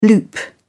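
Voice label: "loop", British English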